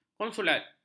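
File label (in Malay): "konsulat", Malay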